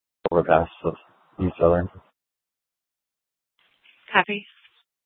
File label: Dispatch, Mesa PD; TOPAZ Regional Wireless Cooperative (TRWC) - Mesa Simulcast; 4 Superstition Dispatch